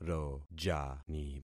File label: "rojani", concat syllables; Affixing experiment